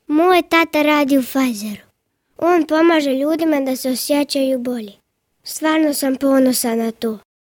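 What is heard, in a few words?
Bosnian boy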